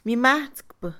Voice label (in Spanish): segundo, Mixe, Mujer; Cantidad; INALI